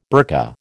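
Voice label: "burka", American English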